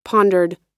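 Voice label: "pondered", American English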